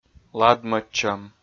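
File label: "ладмӧдчӧм", Komi-Zyrian, male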